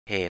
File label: "hè:t" Thai, 'reason, cause'; เหตุ